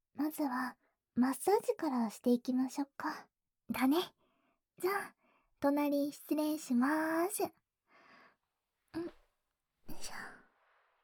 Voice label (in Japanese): ささやきマッサージでリラックス